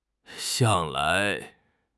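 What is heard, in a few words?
"向来", Mandarin Chinese; HSK6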